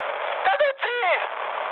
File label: enemy detected, mood high, speech ge; War Thunder